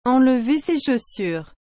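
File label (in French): "enlever ses chaussures", French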